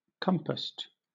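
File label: "compassed", British English; pronunciations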